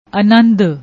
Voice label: "anand", Punjabi; ਅਨੰਦ

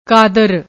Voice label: "kaadar", Punjabi; ਕਾਦਰ

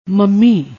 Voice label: "mammy", Punjabi

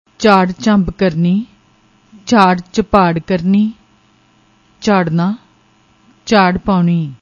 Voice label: "jhaarr jhamb karni", Punjabi

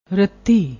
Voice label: "ratti", Punjabi

